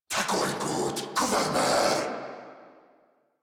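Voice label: Cover Me, Male, English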